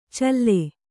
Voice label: "ಚಲ್ಲೆ", Kannada

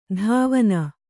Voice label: "ಧಾವನ", Kannada

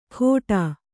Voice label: "ಖೋಟಾ", Kannada

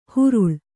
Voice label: "ಹರಳೆ", kannada

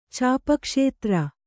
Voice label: "ಚಾಪಕ್ಷೇತ್ರ", Kannada

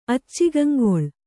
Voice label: "ಅಚ್ಚಿಗಂಗೊಳ್", Kannada

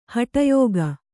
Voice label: "ಹಟಯೋಗ", Kannada

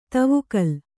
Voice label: "ದೇವಕುಲ", kannada

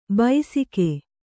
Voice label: "ಬೈಸಿಕೆ", Kannada; baisike; noun; = ಬೈಸಿಗೆ.

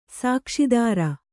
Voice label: "ಸುಕ್ಷೇತ್ರ", Kannada

